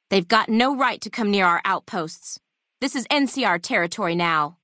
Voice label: female adult greeting; FNV